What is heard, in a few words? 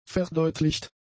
"verdeutlicht", German; adjectives